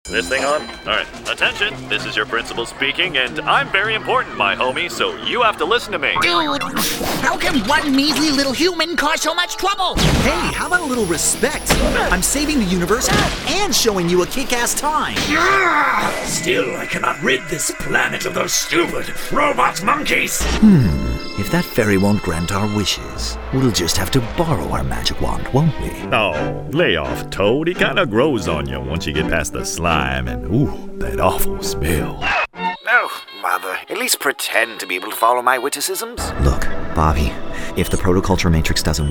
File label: men animation; Mid-range to deep, announcer to guy next door...and everything in between.